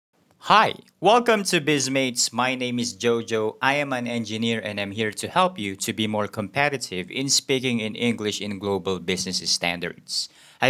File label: trainer voice